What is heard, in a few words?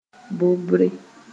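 "bobre", Mooré; carrying hanging down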